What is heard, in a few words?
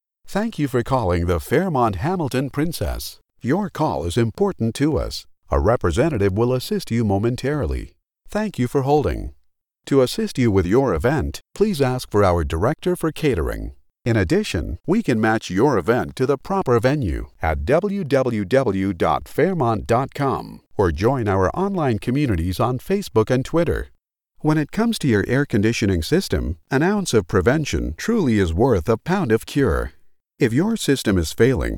telephone messaging IVR